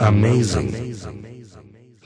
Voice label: amazing, Robotic or Stuttery or Spooky

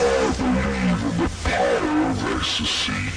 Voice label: i believe power, Robotic or Stuttery or Spooky

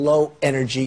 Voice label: trump Low energy; Donald Trump Construction Kit

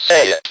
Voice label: speak and spell "SAY IT"

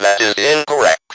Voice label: speak and spell "THAT IS INCORRECT"

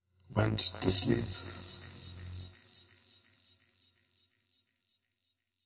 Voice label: processed male vox poetry bits; Robotic or Stuttery or Spooky

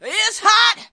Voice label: diva "its hot", Cheesy Divas

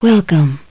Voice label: "Welcome", Cheesy Lo-Fi

